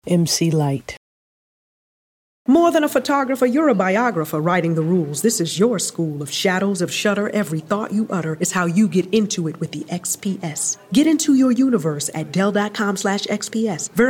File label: commercial; Innovative LA